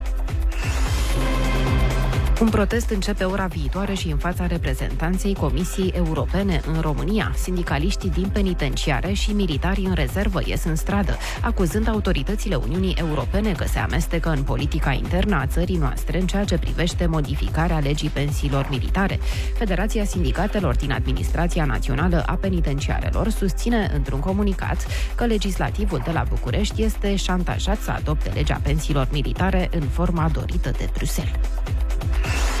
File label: radio; radioguerrilla